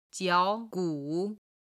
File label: "jiao3 gu3", Chinese